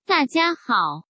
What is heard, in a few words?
Mandarin Conversation